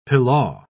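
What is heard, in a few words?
"pilaw", British English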